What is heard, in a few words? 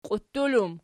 "qiuttul", Archi